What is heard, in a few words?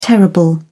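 "terrible", English, British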